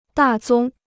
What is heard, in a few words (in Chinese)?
"大宗", Chinese